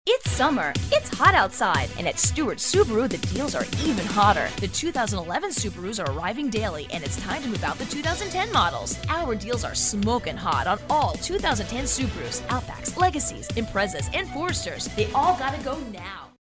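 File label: American English, female, commercial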